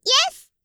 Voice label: "yes!", 合いの手